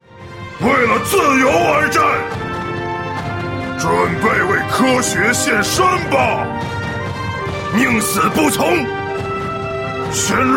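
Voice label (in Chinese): male, zhuanghan dashu (burly middle-aged man)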